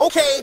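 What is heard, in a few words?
"okay", male voice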